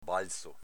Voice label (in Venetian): "balzo", Veneto